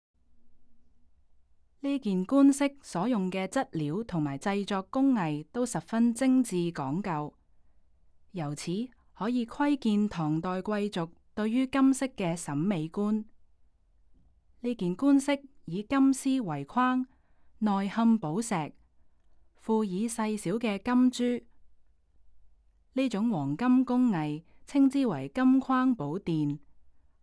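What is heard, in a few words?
Cantonese, audio guide; 鳳及鴛鴦銜花枝紋冠飾; 唐（618–907年）; 金鑲綠松石、紅瑪瑙、琉璃、硨磲; 夢蝶軒惠贈; 香港故宮文化博物館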